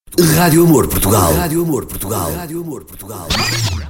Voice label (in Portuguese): Spot Rap